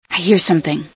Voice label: i hear something, female

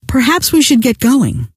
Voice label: "should get going", scientist female

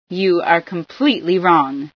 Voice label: completely wrong, female sci

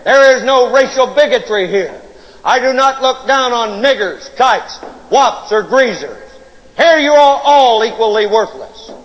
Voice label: chat; sgthartmann

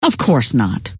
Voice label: of course not, scientist female

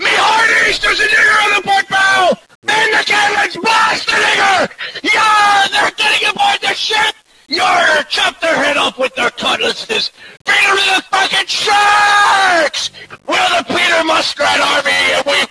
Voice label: pirate

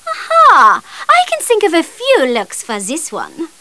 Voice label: chat; maeve

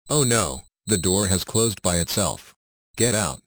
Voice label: door closed; sven; sobrobo

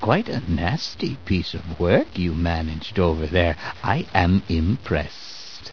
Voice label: cheer, voice commands; gman

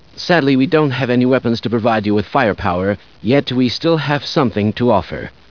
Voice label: no weapons, scientist

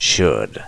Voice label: "should", word

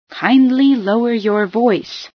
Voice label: lower voice, female

